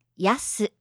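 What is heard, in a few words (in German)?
"Yasu", japanische Mädchennamen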